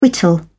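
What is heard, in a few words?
"whittle", British English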